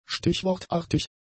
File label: "stichwortartig", German; adjectives